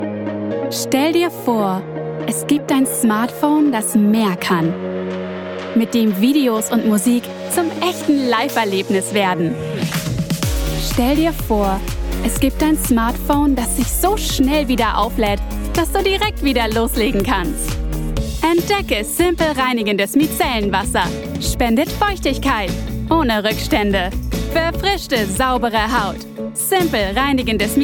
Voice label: German

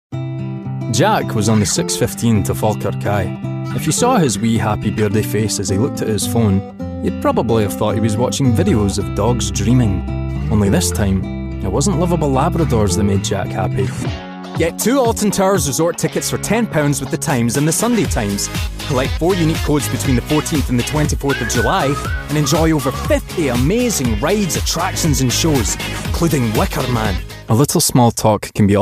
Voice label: Commercials; home studios

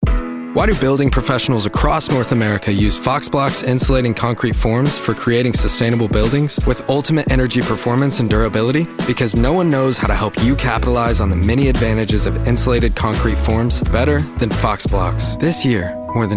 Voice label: Commercial

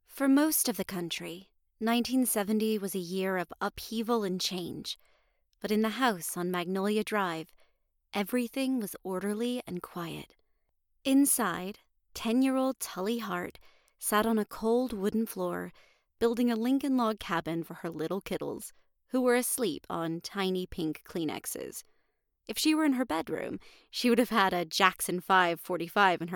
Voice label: Audiobook Sample YA Fiction

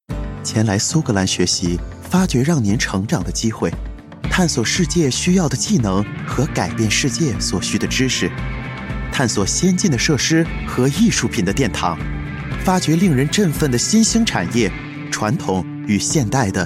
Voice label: Mandarin; Chinese-Mandarin; Male

